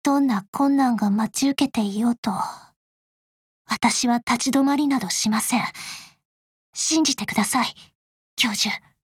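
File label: RANKUP, Japanese; anna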